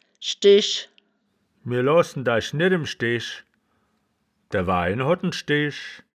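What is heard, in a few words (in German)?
"schdesch", wittlicher woerterbuch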